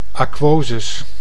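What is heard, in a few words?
"aquosus", Dutch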